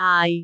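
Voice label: "aai4", Cantonese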